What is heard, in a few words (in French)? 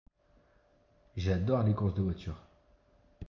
course de voiture neutre